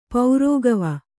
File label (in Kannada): "ಪೌರೋಗವ", Kannada